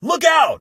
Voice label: look out, male; Kenney's Sound Pack; Voiceover